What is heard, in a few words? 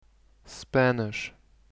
"Spanish"